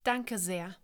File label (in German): "Danke sehr", German; thank you in german